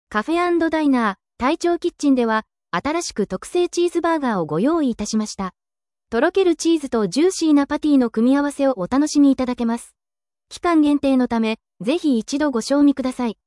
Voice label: sample ad